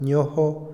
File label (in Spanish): segundo, Otomí, hombre; Cantidad; INALI